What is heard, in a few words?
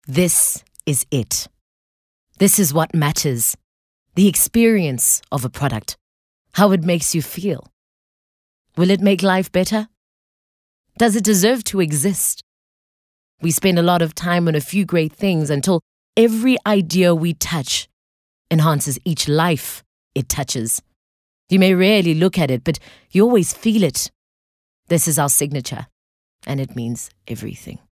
English softsell